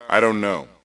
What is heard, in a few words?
Dont Know, male; T2 Male 02